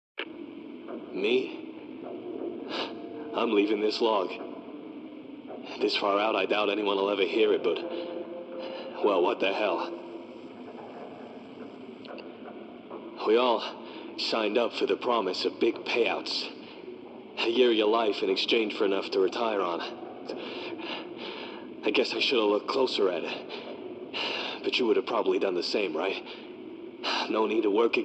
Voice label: MALE